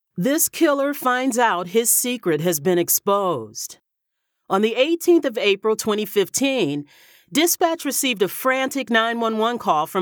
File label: true crime narration, Warm, conversational, Authoritative Female Voice